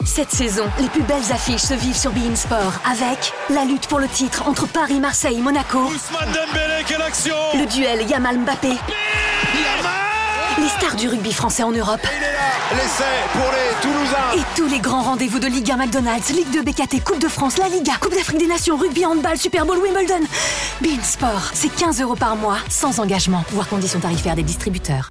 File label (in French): Pub radio; BeIN SPORTS; "Les plus belles affiches"